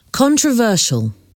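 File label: "controversial", English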